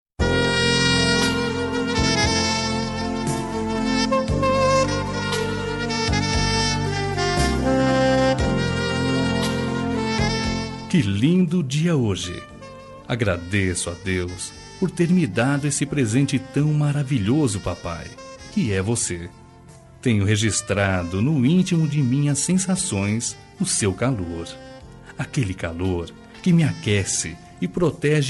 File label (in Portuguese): masc; Aniversário de Pai – Voz Masculina